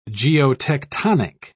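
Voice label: "geotectonic", British English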